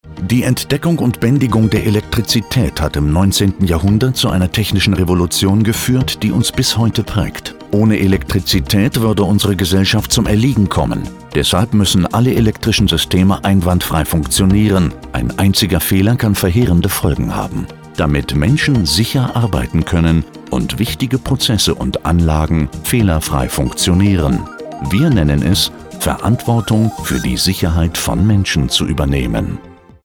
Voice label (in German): videopraesenation; KPS PRUEFSTELLE